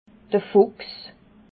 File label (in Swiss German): "fuchs", alsace